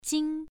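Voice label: "jing", Mandarin Chinese, first tone, pronunciation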